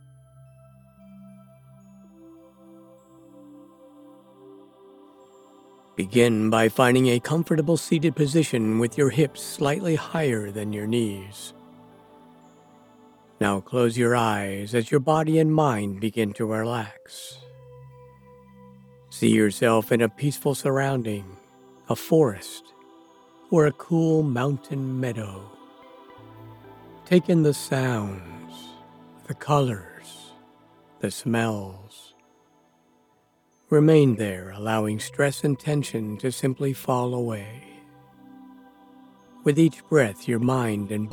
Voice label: NARRATION Meditation Break App